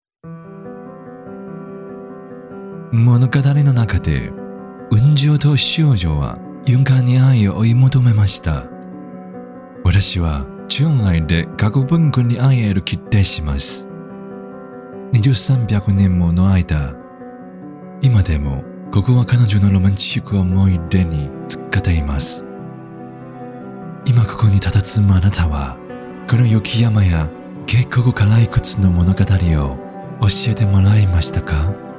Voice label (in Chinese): 男 日语 宣传; 邛崃